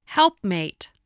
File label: "helpmate", English, spelling